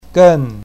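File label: "gen4", Mandarin Chinese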